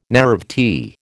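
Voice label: "naïvety", American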